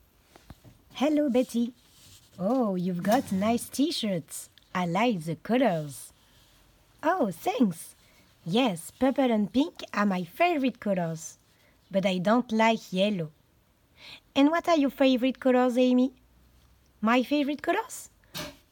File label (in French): Dialogue en anglais